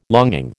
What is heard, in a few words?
"longing", American